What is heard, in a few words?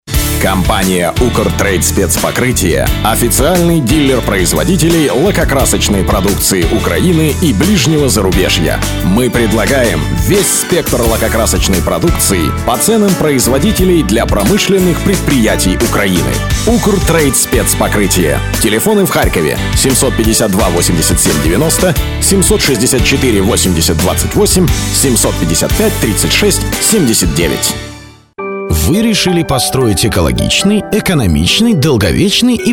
rus